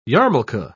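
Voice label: "yarmulka", British English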